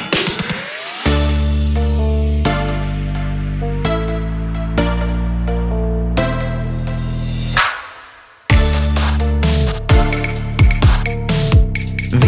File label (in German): Radio Drop